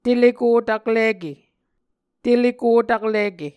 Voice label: "teleko taklike", Muscogee

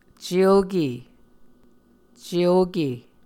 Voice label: "celke", muscogee

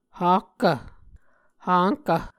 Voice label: "hahkv", muscogee

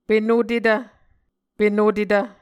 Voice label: "penotetv", Muscogee

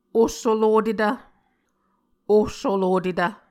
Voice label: "ohsolotetv", muscogee

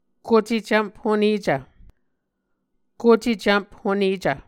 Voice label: "kocecvmp honecv", Muscogee; Mvskoke Words with Voicing Consonants; A Dictionary of Creek/Muskogee; SIL International; Onvkv Ohhvyayices

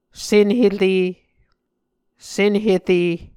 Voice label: "senhere", Muscogee

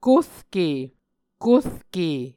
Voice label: "korke", Muscogee

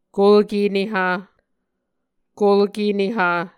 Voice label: "kulke neha", Muscogee

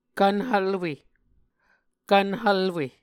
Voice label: "kvn hvlwe", Muscogee

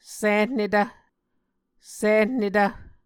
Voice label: "sihnetv", Muscogee

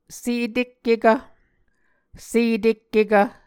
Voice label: "setekkekv", Muscogee; Mvskoke Words with Repeated Consonants; A Dictionary of Creek/Muskogee; SIL International

